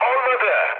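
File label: Turkish bomb failure, mood high, speech; War Thunder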